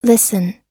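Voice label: "listen", English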